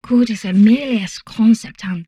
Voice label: humanoid female; Stellaris